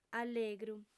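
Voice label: "allegru", lingua sarda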